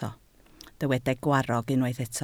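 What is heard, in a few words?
"gwarrog" Welsh, spoken word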